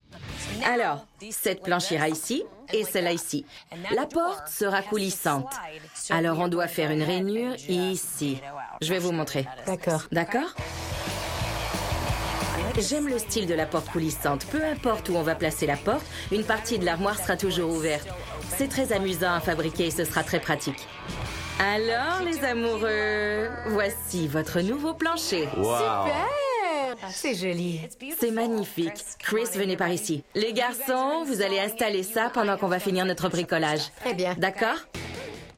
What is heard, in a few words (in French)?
Démo Surimpression vocale